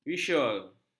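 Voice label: "visual", Malay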